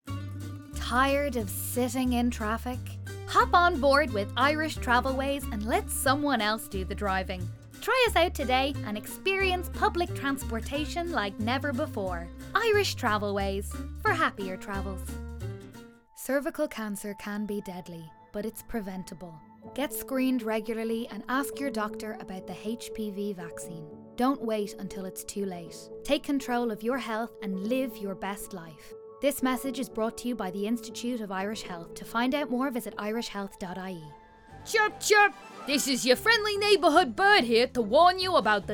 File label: Commercial